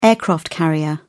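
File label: "aircraft-carrier", British English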